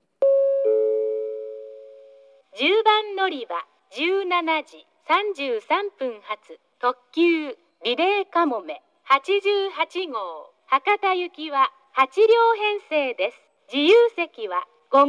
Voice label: 10番のりば案内放送; リレー特急; リレーかもめ88号 博多行き

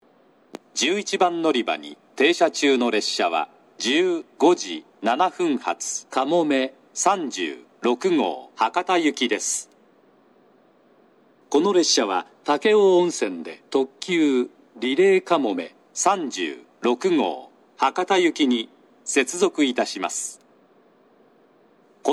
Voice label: 嬉野温泉, 11番のりば停車中放送; かもめ36号 博多行き

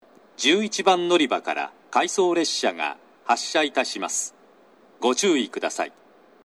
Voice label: 11番のりば発車放送（回送列車）; shin omura

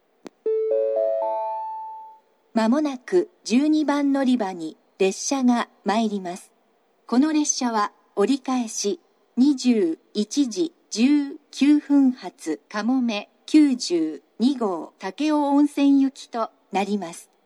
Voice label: 長崎 西九州新幹線ホーム 自動放送, 12番のりば接近予告放送, 折り返し放送; かもめ92号 武雄温泉行き

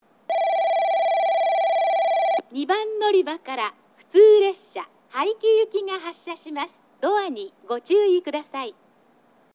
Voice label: 2番のりば発車放送; 普通 早岐行き; 武雄温泉; 自動放送

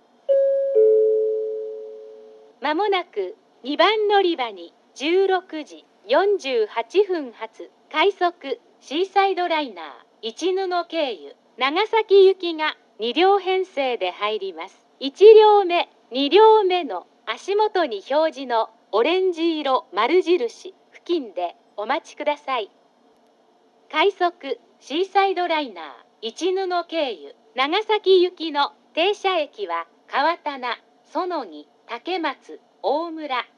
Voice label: 2番のりば予告放送; ハウステンボス; 2番のりば予告放送（快速シーサイドライナー 長崎行き）; ※乗車口 1〜2両目オレンジ色○印; 長崎行きの列車のみ放送が流れ、佐世保方面の列車では流れません。放送は概ね入線の3分前頃に鳴ります。; 快速では停車駅も放送されます。

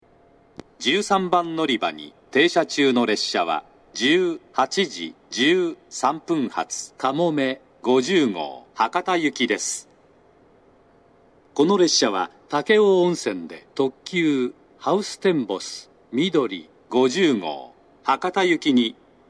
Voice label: 長崎 西九州新幹線ホーム 自動放送, 13番のりば停車中放送; かもめ50号 博多行き）※武雄温泉で、ハウステンボス・みどり50号に接続、停車駅が二日市まで

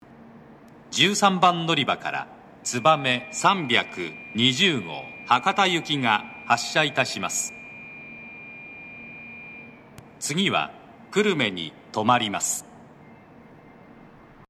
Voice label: 13番のりば発車放送, 新幹線ホーム; 筑後船小屋; 13番のりば発車放送（つばめ320号 博多行き）; 標準の放送内容です。; 駅員放送が被りやすいです。

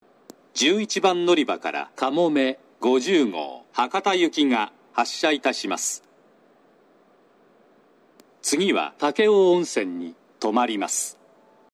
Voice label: 嬉野温泉, 11番のりば発車放送; かもめ50号 博多行き; この放送が鳴っている最中には、ホームドアは閉まっている事がほとんどです。

